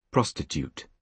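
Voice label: "prostitute" English, British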